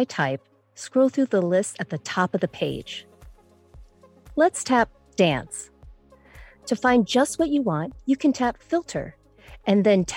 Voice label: Fitness Application Tutorial, Voiceover; Voice123